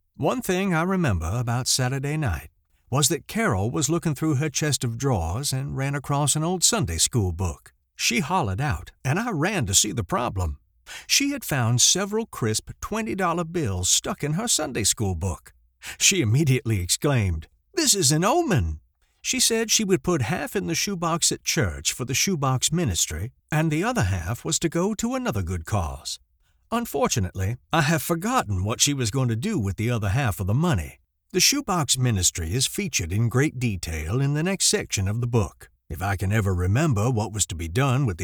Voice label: Audiobook Southern Accent